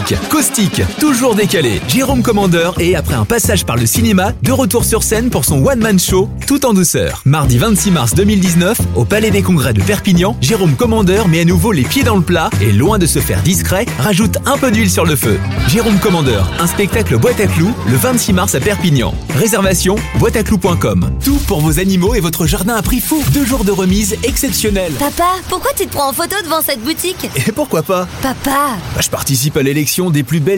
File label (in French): VO Voix H